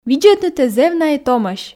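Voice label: видзӧднытӧ зэв на и томӧсь, Komi, female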